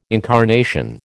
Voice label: "incarnation", American